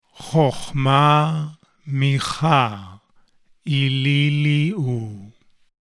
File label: LAYER 11 HEBREW; the Hebrew pronunciation; DNA Layer Eleven